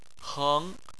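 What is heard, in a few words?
"heng", Chinese, second tone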